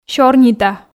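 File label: "сёрнита", Komi, female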